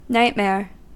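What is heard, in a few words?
"nightmare", US English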